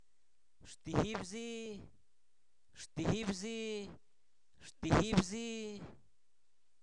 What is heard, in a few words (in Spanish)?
uno solo, Zapoteco, santo domingo albarradas; INALI; numeros